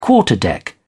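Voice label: "quarterdeck", British English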